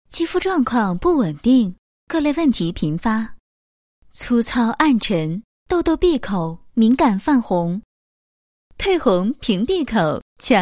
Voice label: 女 护肤广告温柔 水乳套装

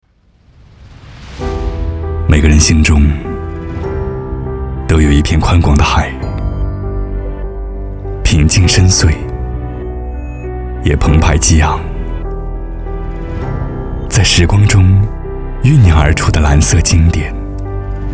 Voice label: 男 高端广告; 海之蓝

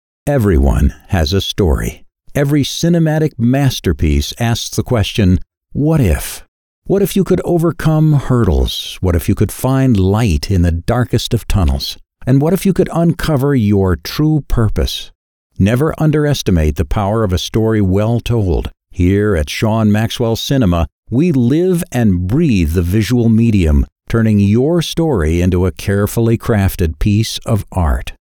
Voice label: 外籍英男 故事讲述

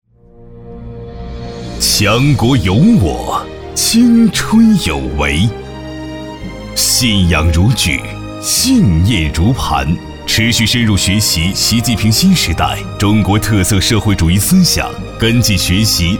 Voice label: 男 党政宣传; 强国有我

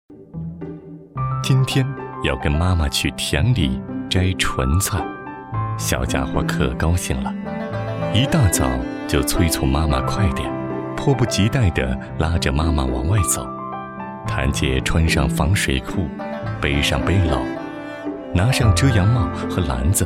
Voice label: 男 专题 舌尖风; 摘莼菜松

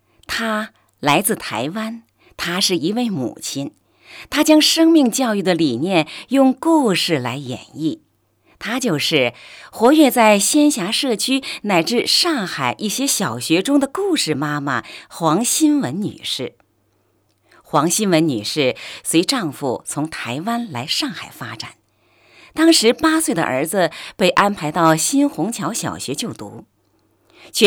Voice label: 国语女声配音, 女, 成熟, 她是一位母亲; 人物类

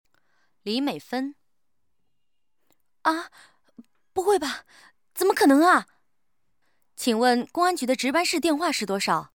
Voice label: 女 微电影角色 对话

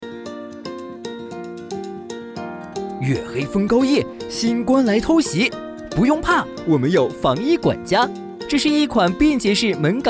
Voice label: 男 MG 动漫广告

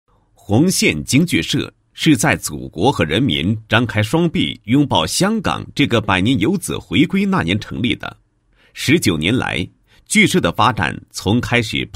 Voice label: 男, 专题片; 记红线社区京剧社

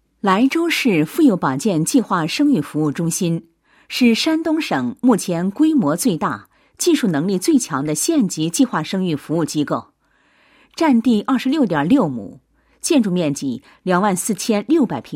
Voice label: 女 解说词; 计生机构视频解说词

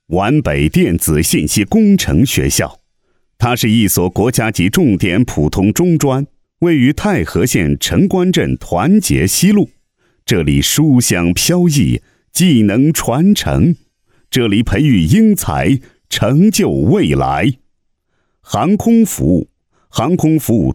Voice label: 招生宣传片解说

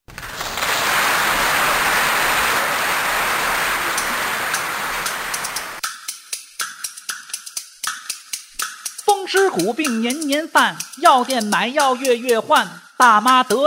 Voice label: 男 快板 快板配音

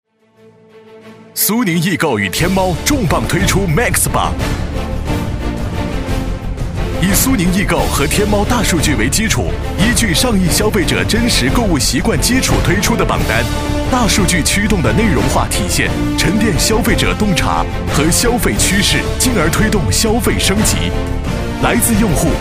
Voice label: 广告 大气磅礴; 苏宁易购打榜

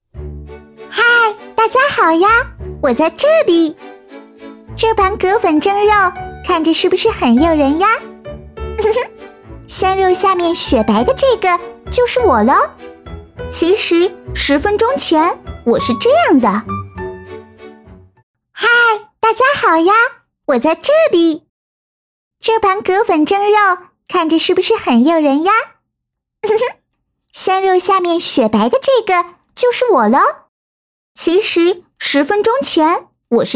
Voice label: 女童; 葛粉

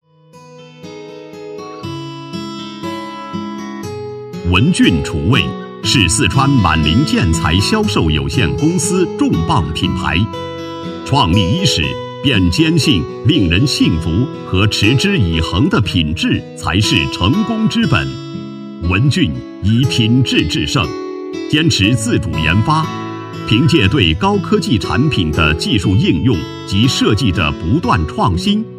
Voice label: 男 宣传片 推广

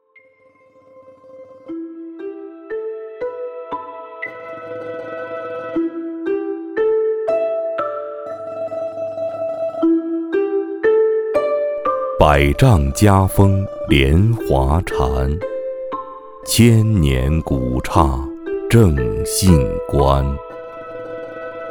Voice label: 男,专题; 阿弥陀佛

